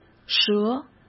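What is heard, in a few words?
"蛇", Mandarin Chinese; hsk; chinese-flashcards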